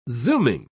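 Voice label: "zooming", British English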